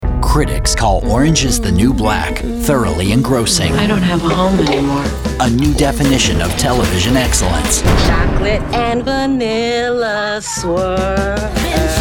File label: promo